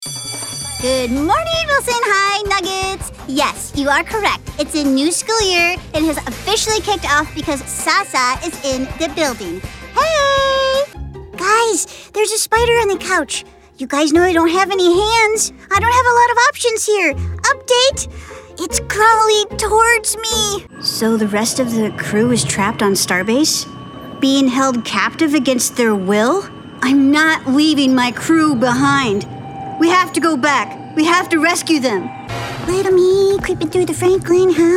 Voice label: Animation